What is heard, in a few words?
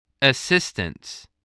"assistance", english